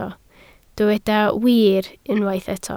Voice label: "wir", Welsh